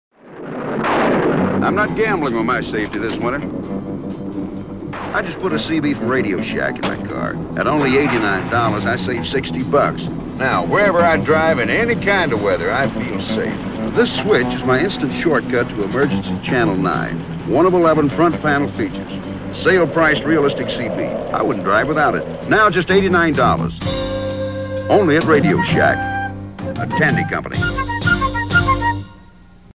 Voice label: CB radio commercial; Radio Shack; 1978; Phone Losers of America Media Pack 2017

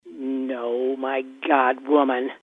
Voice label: noooooo my god woman (old lady talking to neon); SPS Intro

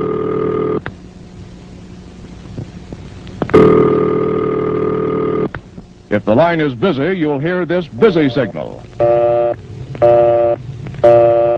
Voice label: Telephone Radio Announcement dial service change; Phone Losers of America Media Pack 2017; Live Show Sounds

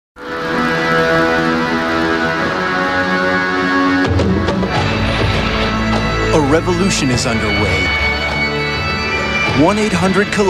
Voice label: Television Commercial; 1 - 800-COLLECT; 1993; Phone Losers of America Media Pack 2017

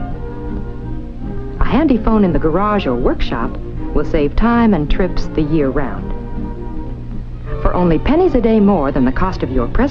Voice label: TV commercial for extension phones; Phone Losers of America Media Pack 2017; Television

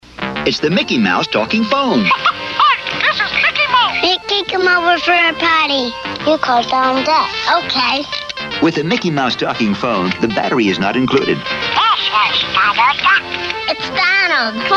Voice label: Talking Phone, Commercial; Mickey Mouse Talking Phone from Hasbro; Come Over For a Potty; Phone Losers of America Media Pack 2017

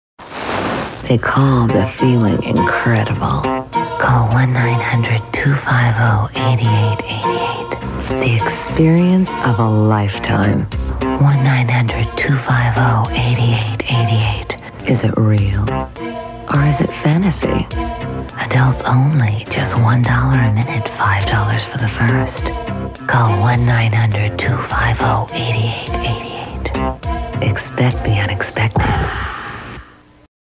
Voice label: Commercial; Phone Losers of America Media Pack 2017; Live Show Sounds

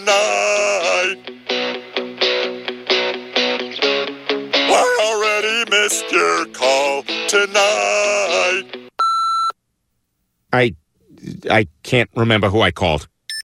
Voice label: Creative Voicemail Message; Family Guy; Phone Losers of America Media Pack 2017

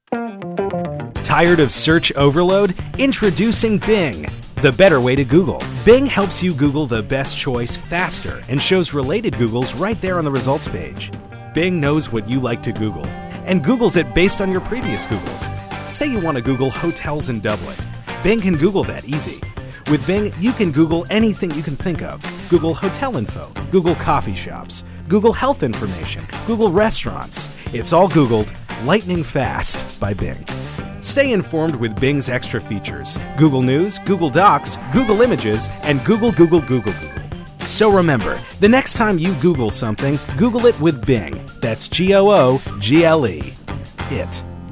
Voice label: Commercial; Google It With Bing; Phone Losers of America Media Pack 2017

